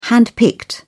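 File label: "handpicked", British English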